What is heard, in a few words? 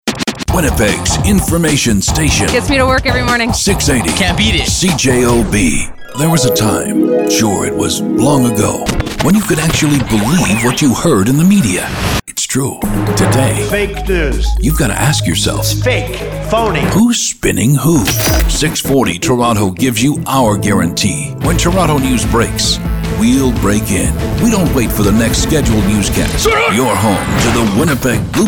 Newstalk